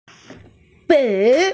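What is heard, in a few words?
"dei3", Mandarin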